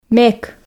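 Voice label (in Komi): "мек", Komi, female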